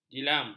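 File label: "jilam", Malay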